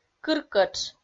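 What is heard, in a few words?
"кыркӧтш", Komi, female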